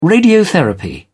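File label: "radiotherapy", British English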